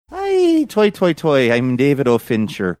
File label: saying ai toi toi toi irish; im david o fincher